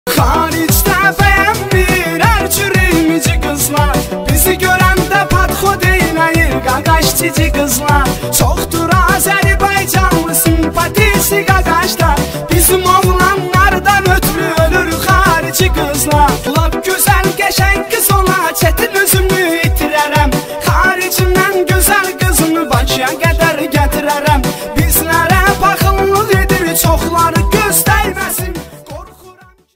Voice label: tourism advertisement; baku